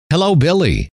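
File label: hello, male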